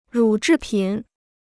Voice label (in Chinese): "乳制品", Chinese